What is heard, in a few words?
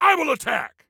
Self Attack Attack, Soldier Male; Soldier Male 03